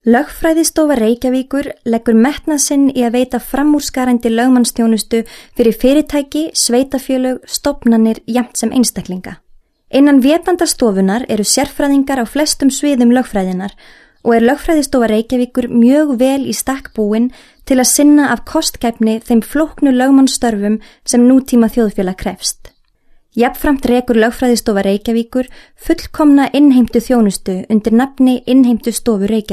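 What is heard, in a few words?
Icelandic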